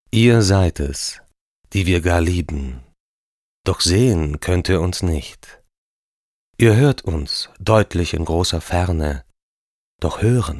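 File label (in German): poetisch, Sprecher, Männer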